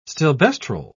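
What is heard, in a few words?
"stilboestrol", British English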